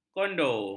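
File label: "kondo", Malay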